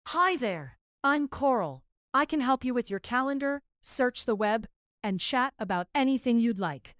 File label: coral